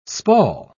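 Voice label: "spall", English, British English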